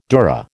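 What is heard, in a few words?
"dura", American English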